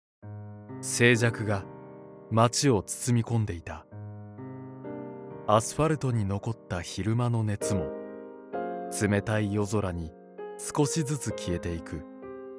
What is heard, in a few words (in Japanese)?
朗読